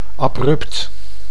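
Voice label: "abrupt", Dutch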